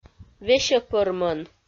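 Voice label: "весьӧпӧрмӧн", Komi, female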